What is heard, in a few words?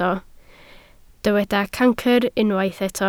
"cancr", Welsh